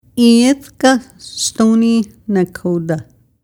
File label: "Iethka Stoney Nakoda"; Land Acknowledgment